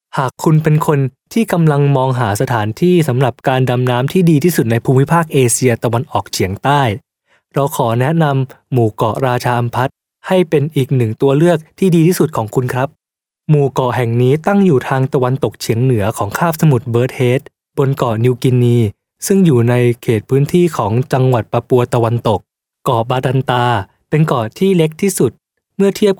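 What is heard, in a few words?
Thai male corporate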